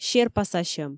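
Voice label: "серпасасьӧм", Komi, female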